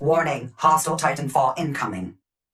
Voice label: warning hostile titanfall incoming, Tone Voice; Titanfall 2

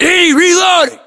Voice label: inform reloading, US

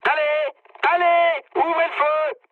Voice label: attack position mood med, speech fr; War Thunder

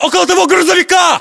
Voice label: landmark near truck, battlechatter ru; localized english

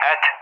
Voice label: "at", ENG; Allied Flight; DCS

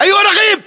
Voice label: rank sergeant, localized english battlechatter

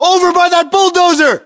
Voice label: landmark near bulldozer, english, us

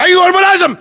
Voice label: rank lieutenant, localized english battlechatter

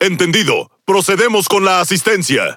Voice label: Es Speech Quick Commands Aye Aye; World Of Warships

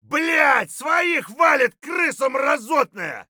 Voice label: rat, voice; Escape from Tarkov

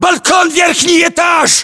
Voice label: location top floor balcony, ru; localized english

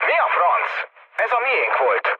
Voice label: player killed ally, mood low, speech hun

